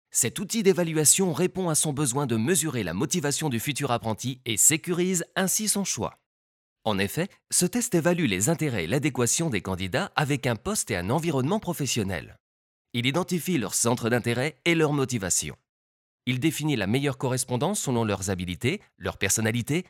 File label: french training e-learning